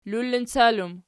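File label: "lullincal" plural, Archi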